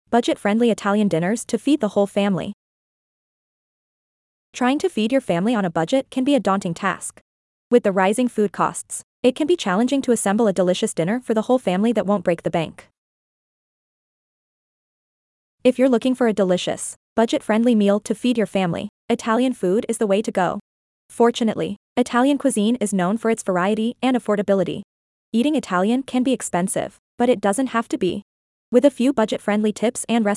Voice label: Voiceover; Ferlitos; Budget Friendly Italian Dinners to Feed the Whole Family